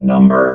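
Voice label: "number", robot voice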